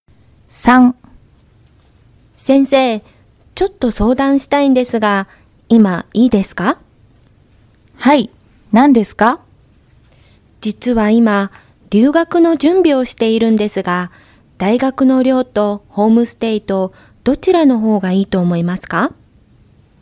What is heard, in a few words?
Conversation